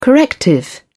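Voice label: "corrective", British English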